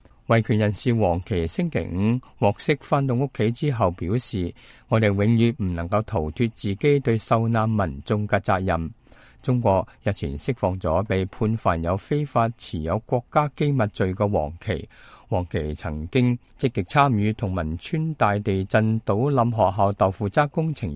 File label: cantonese, news